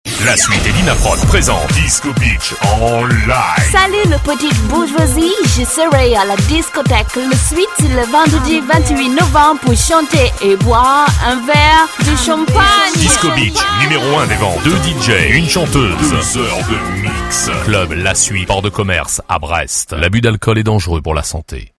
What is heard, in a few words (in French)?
pub, comédienne voix off